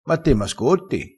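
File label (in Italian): "bischero", dialettale crespinese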